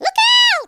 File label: "incoming", Cowgirl; speech